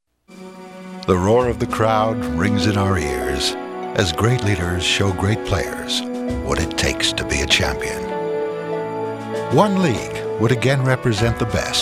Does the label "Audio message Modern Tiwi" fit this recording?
No